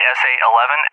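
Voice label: "SA-11", English; USA; AirDefence; DCS; Allied Flight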